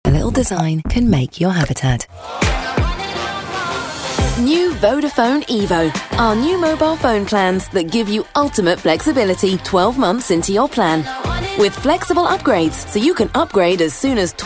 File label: Commercials Mix